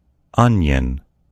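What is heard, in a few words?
"onion", American English; gcompris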